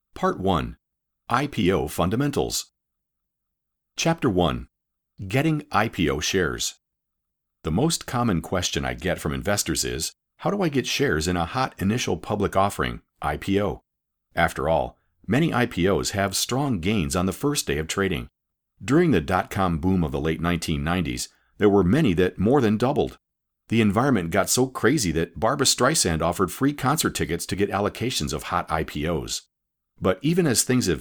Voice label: Non-Fiction Audiobook